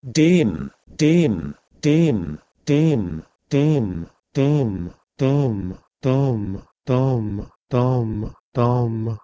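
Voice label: Proto-Indo-European dem to Persian dam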